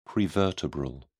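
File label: "prevertebral", British English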